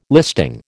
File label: "listing", American